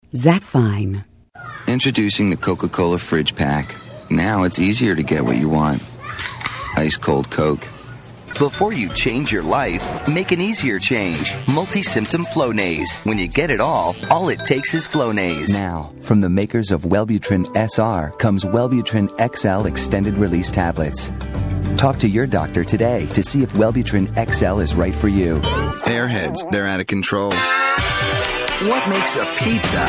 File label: commercial